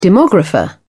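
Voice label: "demographer", British English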